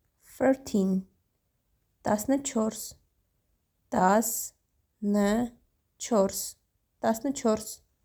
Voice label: "14 tasnychors", Armenian